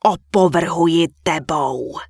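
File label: Human Female Warrior NPC Pissed, Czech; wowpreklad; czwow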